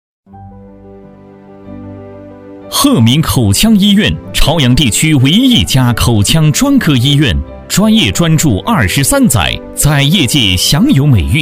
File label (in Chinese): 男国 专题 医院 抒情; 鹤铭口腔医院